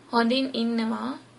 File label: fine, sinhala